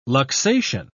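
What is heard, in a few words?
"luxation", British English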